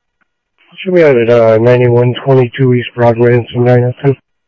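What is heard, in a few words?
Sheriff East County, scanner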